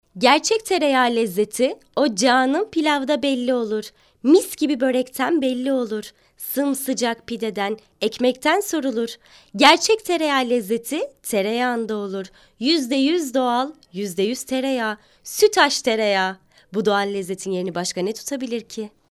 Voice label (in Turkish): reklam